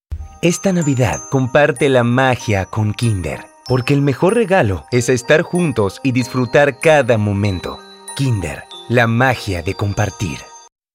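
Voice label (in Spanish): calido relajado, espanol argentina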